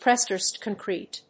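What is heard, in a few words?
"prestressed concrete", English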